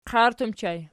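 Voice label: "qairt" ergative plural, Archi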